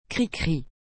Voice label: "cricri", frengjisht

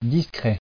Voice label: "discret", frengjisht

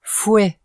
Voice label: "fouet", frengjisht

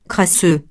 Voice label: "crasseux", frengjisht

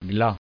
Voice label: "glas", frengjisht

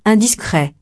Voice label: "indiscret", frengjisht

